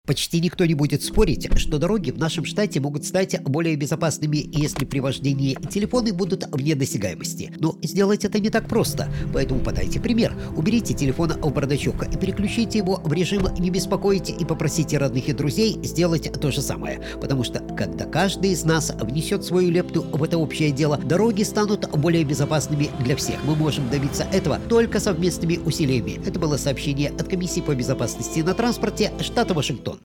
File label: Radio Russian RADIO, Distracted Driving